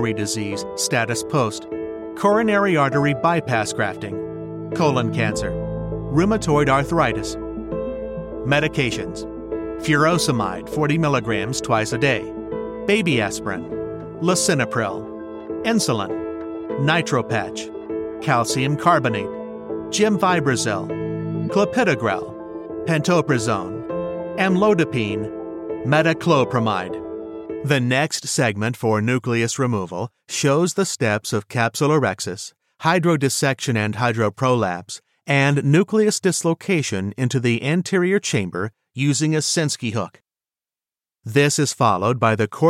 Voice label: elearning, male medical voiceovers